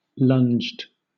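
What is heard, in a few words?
"lunged", British English; pronunciations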